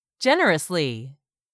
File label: "generously", English; 3000 words